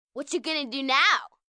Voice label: Whacha Gonna Do Now, Attitude, 1 girl, 8 yrs